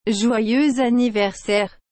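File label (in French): "joyeux anniversaire", French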